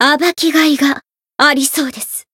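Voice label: Voice encount fear, Japanese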